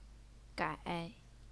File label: "gai3", Chinese pinyin